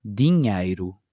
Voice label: "dinheiro", Portuguese